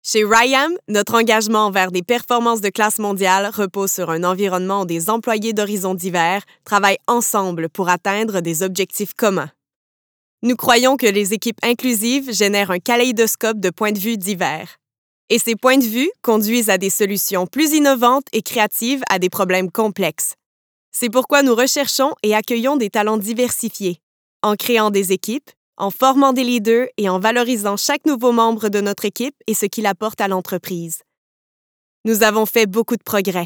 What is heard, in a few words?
french canadian corporate videos